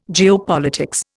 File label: "geopolitics", Indian